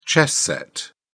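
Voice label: "chess set", British English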